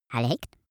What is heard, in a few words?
cartoon male talk short